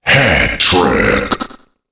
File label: hat trick, Announcer Voice; Unreal Tournament